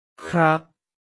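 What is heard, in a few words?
"khrap", Thai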